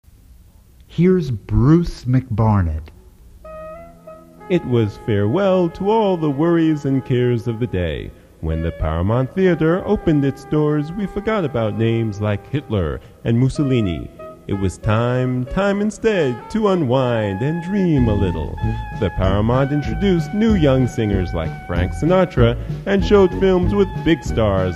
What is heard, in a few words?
voiceover